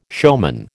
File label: "showman", American